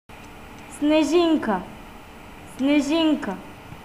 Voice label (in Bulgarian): snowflake, Bulgarian; снежинка